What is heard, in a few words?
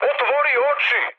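Serbian player friendly fire mood high; speech; War Thunder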